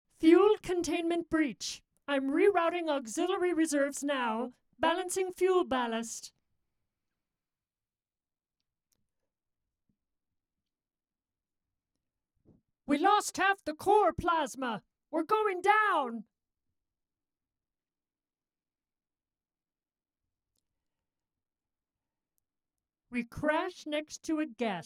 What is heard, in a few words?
ALIEN VOICES; DIALOGUE; PST; BLURG